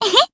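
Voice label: Vox Happy Japanese; May; kingsraid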